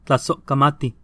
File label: thanks nahuatl